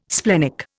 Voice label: "splenic", Indian

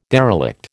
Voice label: "derelict", American

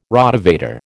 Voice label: "Rotavator", American

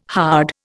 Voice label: "hard", Indian

